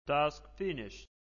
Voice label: Male Task Finished, Male Voice; Grand Backup personal